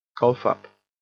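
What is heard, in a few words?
"cough up", English; Naval!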